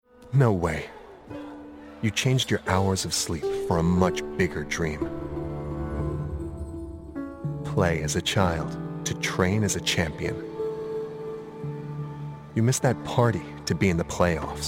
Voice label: male american english athletes comercial; ideasonora

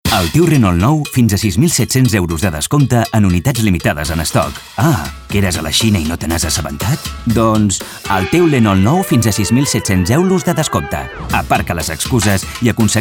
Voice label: male catalan car comercial; ideasonora

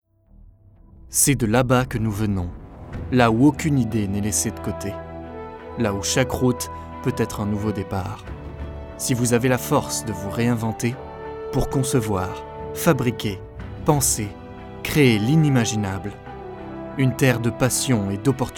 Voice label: male french car comercial

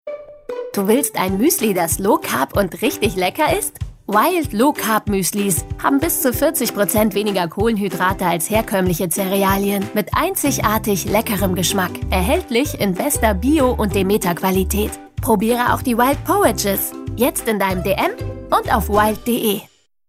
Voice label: female german commercial; ideasonora

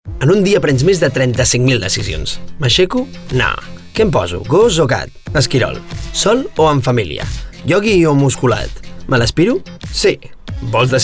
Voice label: male catalan car comercial; ideasonora

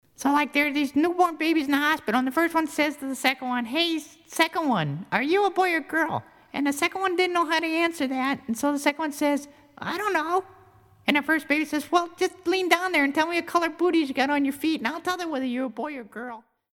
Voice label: male american english boy comercial; ideasonora

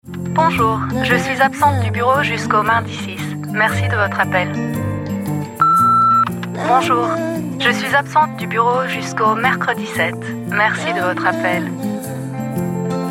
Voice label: female french bureau comercial; ideasonora

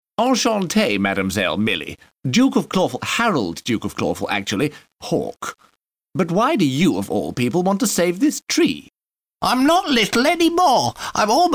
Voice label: male british english commercial; ideasonora

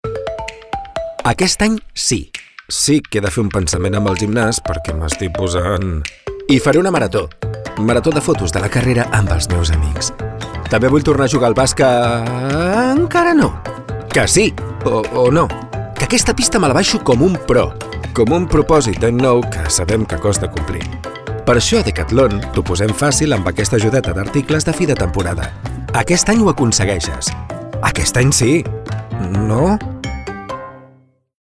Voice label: male catalan sport comercial; ideasonora

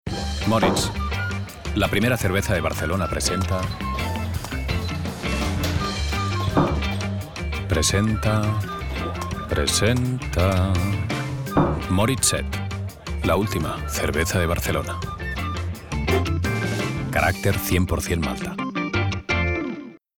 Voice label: male spanish beer comercial

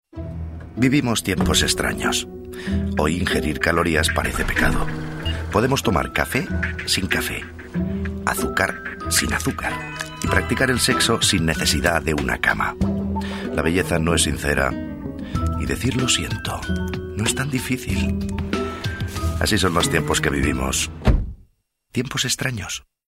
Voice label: male spanish car comercial

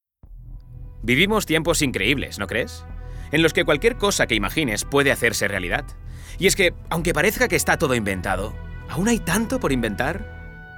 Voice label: male spanish comercial; ideasonora

